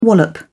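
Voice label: "wallop", British English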